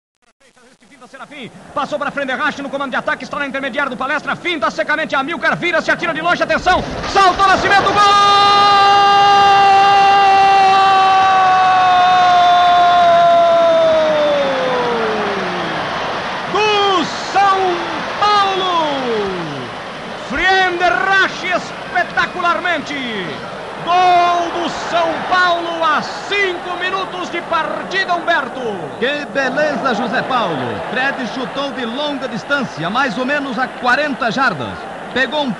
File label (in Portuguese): narracao gol; friedenreich